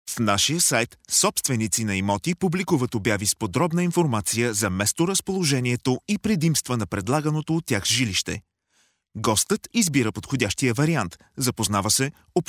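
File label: bulgarian male; lektoring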